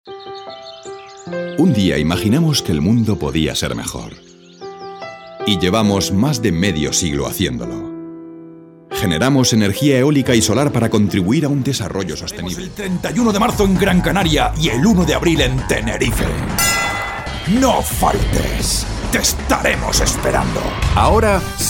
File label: spanish commercial; Spanisch; Spanien